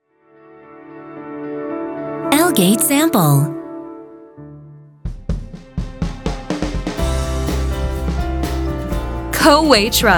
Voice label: 영어 여 기본톤

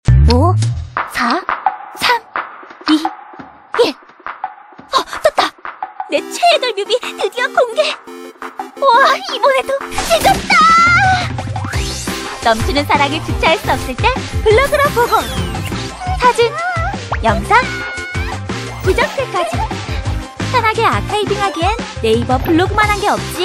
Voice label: CM 밝은 10대 20대; 네이버 블로그

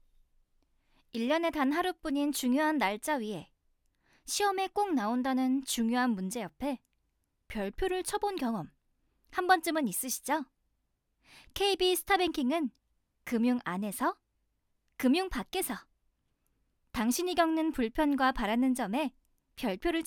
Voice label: 광고 자연스러운 대학생 st

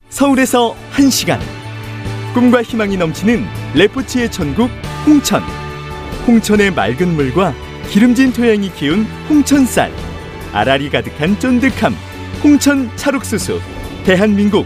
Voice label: 밝은 지역홍보 캠페인 광고

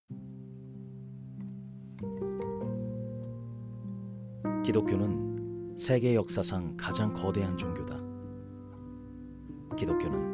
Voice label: 진지한 내레이션

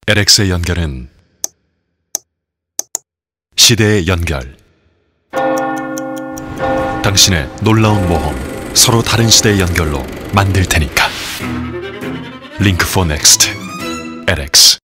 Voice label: CF 시크한 고급스러운; LX

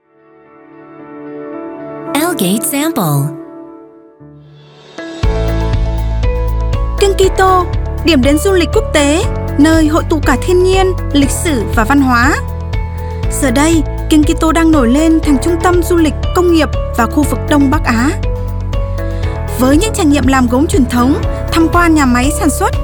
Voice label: 베트남 여 밝은 톤

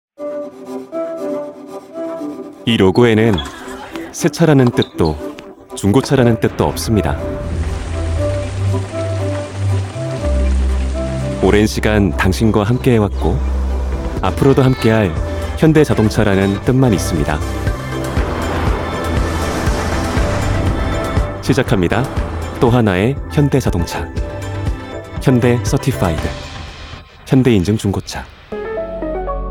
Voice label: 광고 산뜻한 자연스러운 친절한

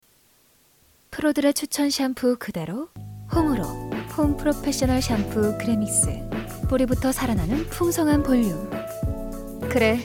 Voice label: 세련된

